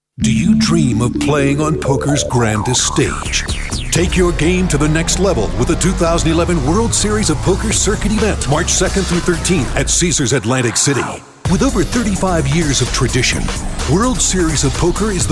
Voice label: High Energy